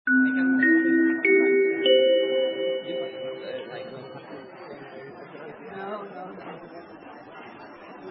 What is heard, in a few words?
Flight Announcement